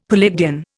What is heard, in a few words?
"Palladian", Indian; Dictionary